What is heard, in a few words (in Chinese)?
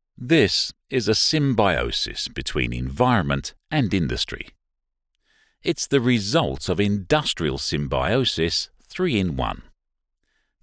外籍英式英语大气磁性